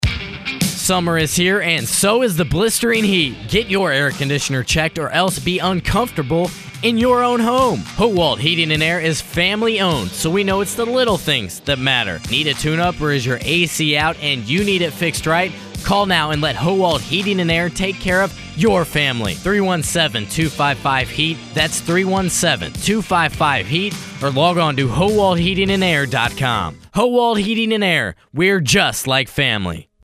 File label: Commercial